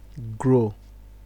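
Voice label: "ground dry", Nigerian Pidgin, male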